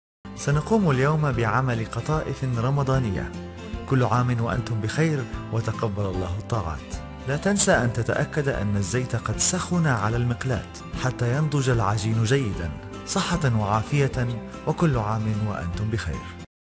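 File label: Arabic, male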